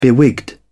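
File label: "bewigged", British English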